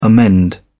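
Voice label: "amend", English, British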